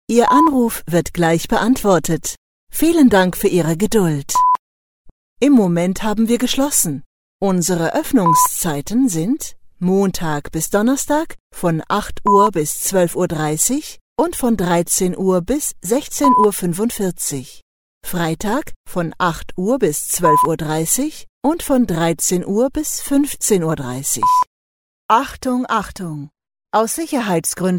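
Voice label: German, Messaging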